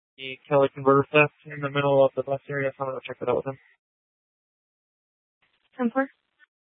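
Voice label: Tempe Police Department, scanner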